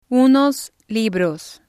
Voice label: unos libros, Spanish